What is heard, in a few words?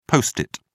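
"post-it", British English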